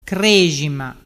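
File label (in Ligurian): "crexima", Ligurian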